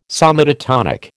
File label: "somatotonic", American